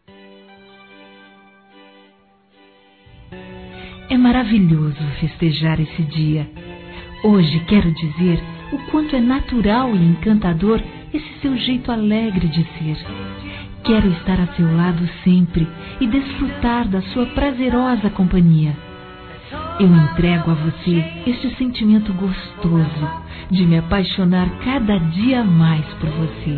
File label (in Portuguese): Romântico Feminino; Aniversários; Aniversários diversos